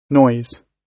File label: "noise", gb